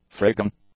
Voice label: "frigging", English; adjectives

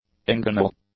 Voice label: "inguinal", English; adjectives

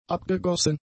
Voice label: "abgegossen", German; adjectives

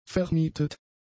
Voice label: "vermietet", German; adjectives

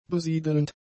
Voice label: "besiedelnd", german; adjectives

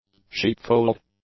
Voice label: "sheepfold", English; nouns

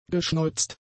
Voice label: "geschneuzt", German; adjectives

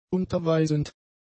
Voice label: "unterweisend", German; adjectives

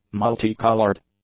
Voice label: "multi-coloured", English; adjectives

